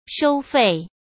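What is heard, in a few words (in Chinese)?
"收费", Mandarin Chinese; hsk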